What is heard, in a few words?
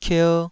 "kier2", taiwanese syllables